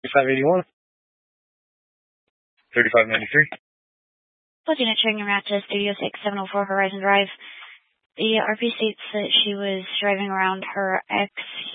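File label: Police Dispatch, scanner audio recording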